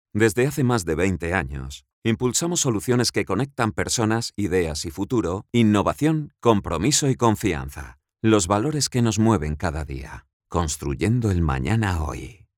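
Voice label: Spanish male corporate, voz cálida; corporativo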